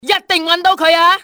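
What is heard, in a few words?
find him, cantonese female; XBOX; enemy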